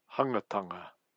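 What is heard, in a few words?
"hangatanga", Maori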